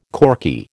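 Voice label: "corky", American English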